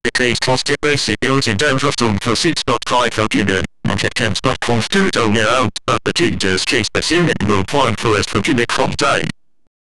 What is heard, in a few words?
announcement with unclear, pa